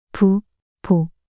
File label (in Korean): 부보 낱소리 여자